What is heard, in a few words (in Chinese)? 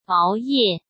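"熬夜", Mandarin Chinese; chinese-flashcards; hsk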